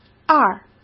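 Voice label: "二", Mandarin Chinese; chinese flashcards; hsk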